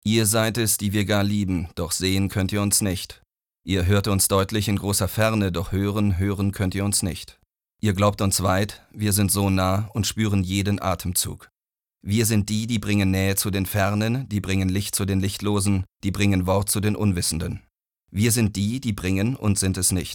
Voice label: sachlich; maenner

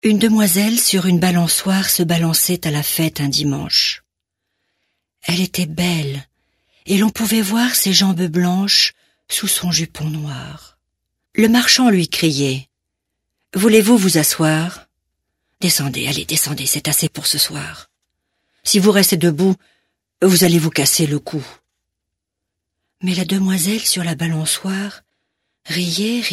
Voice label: franzoesisch sanft, Erzählung, frauen

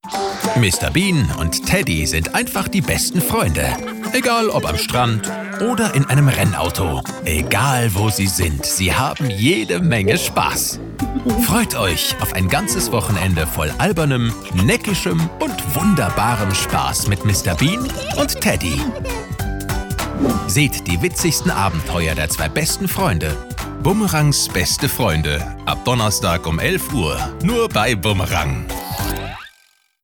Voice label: Trailer, maenner; Warner; Boomerang; BestFriends

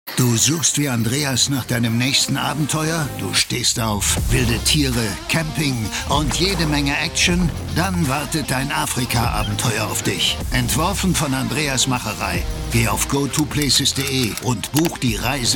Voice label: Trailer; go2places

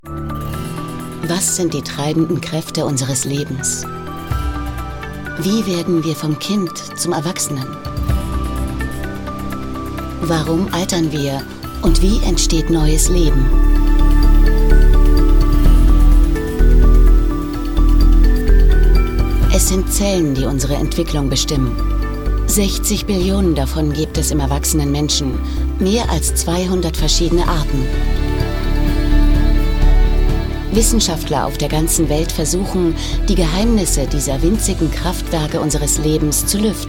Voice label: Kommentar, frauen; HumanCell

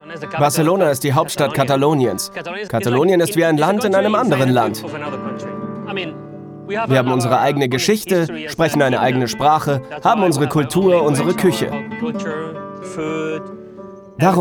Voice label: VoiceOver, maenner; Ride with Norman Reedus

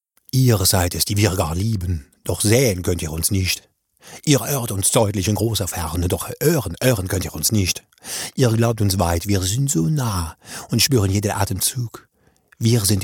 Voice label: Akzent französisch, maenner; Sprecher

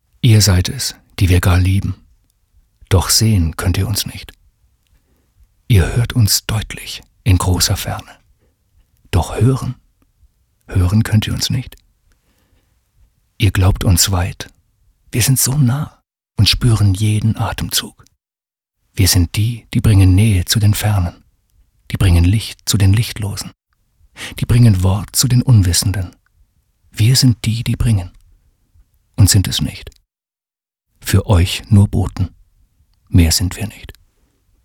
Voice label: poetisch, maenner; Sprecher

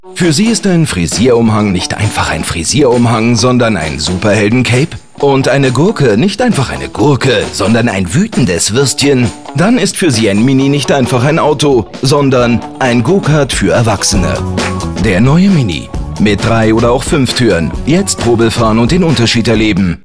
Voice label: Spots; maenner; Mini

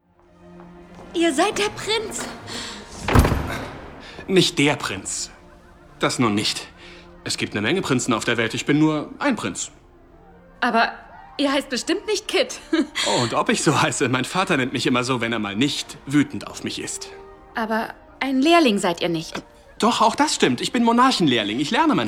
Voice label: Synchron, maenner; Cinderella

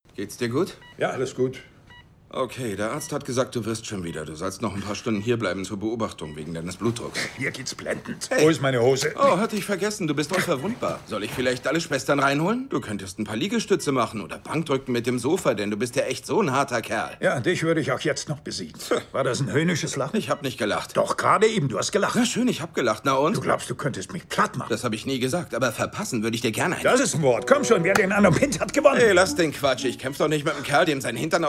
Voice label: Synchron, maenner; Daddy´s Home 2

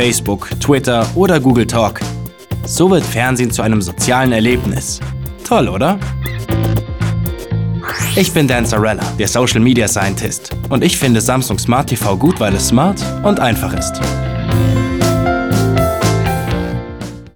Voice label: Kommentar, maenner; Samsung; SmartConnection

